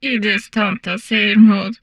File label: humanoid portrait female; Stellaris